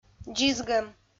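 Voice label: "дзизгӧм", Komi, female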